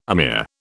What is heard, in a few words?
"amir", British